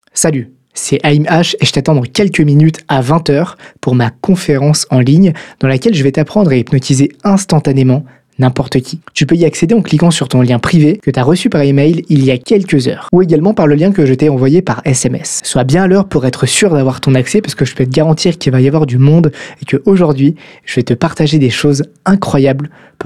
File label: VOICE CALL